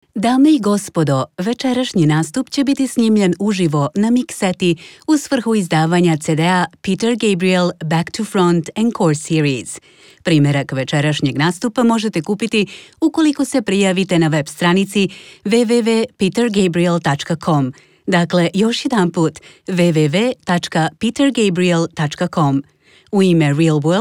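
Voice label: Serbian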